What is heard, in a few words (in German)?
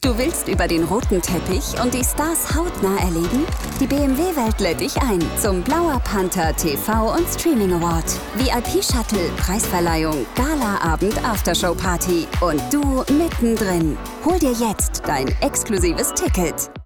Werbung einladend sanft; BMW Welt; Blauer Panther